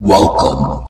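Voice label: "welcome", male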